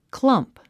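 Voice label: "clump", English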